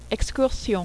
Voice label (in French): "excursion", French